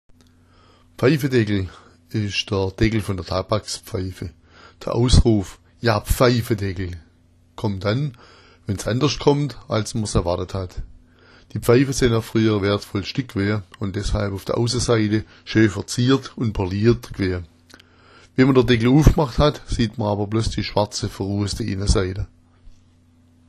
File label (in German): pfeifadeckl, schwaebisch